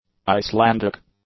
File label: "Icelandic", english; adjectives